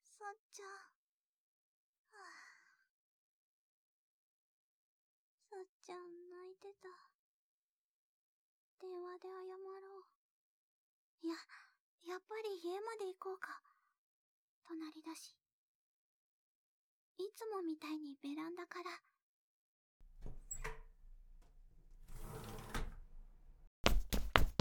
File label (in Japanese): BGMなしトラック4 シナリオ, ASMR